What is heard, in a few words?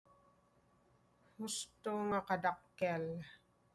ILOCANO